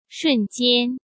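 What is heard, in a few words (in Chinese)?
"瞬间", Mandarin Chinese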